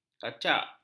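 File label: "kacak", Malay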